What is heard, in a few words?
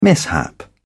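"mishap", British English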